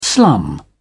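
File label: "slum", English, British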